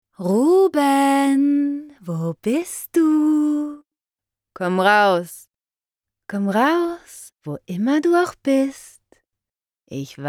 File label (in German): stimmungen